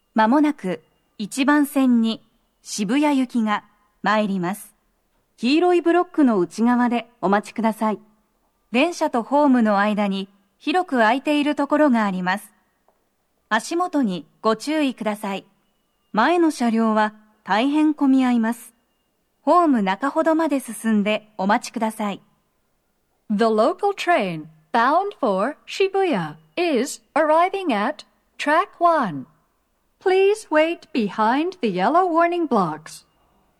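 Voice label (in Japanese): 接近放送, 渋谷行き(混雑付帯), 女声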